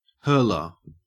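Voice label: "hurler", Australian English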